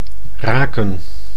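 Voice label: "raken", Dutch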